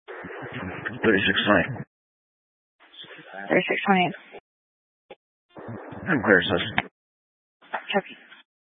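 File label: Police 1 Dispatch, Scanner audio recording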